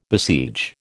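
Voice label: "besiege", American English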